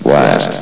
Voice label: "blast", vox; Source Engine; bshift